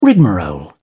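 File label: "rigmarole", British English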